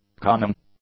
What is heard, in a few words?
"cunning", English; adjectives